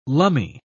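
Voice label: "lumme", British English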